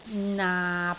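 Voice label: "naap6", Cantonese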